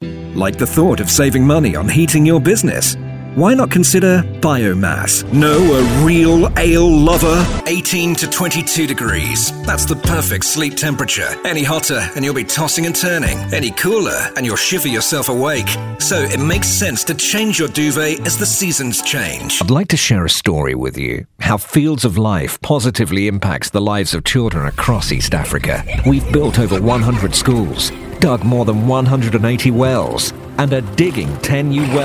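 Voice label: English UK Commercial; GoLocalise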